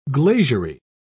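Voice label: "glaziery", British English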